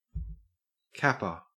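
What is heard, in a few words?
"capper" English, Australian accent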